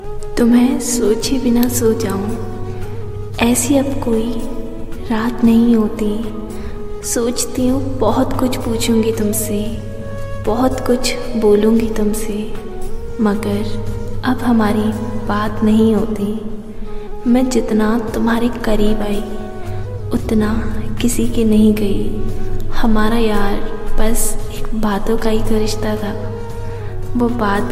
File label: Shayari Ringtone; Tumhe Sochay Bina Soo Jao